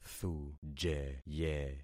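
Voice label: "thujeye", concatenated syllables; Affixing experiment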